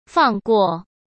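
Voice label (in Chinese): "放过", Mandarin Chinese; chinese-flashcards; hsk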